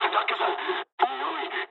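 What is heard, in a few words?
bailout mood med, speech japanese; War Thunder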